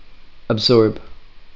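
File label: "absorb", USA, male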